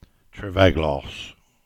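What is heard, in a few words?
"Churchtown", Cornish; place-names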